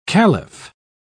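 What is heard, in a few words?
"calif", British English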